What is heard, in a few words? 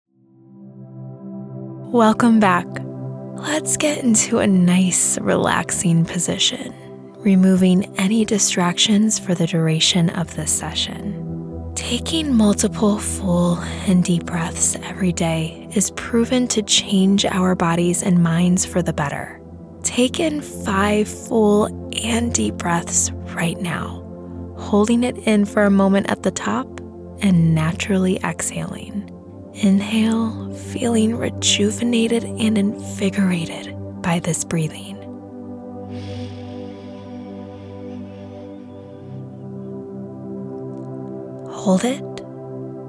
Meditation Self Improvement